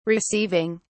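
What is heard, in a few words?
"receiving", British English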